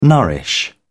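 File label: "nourish", British English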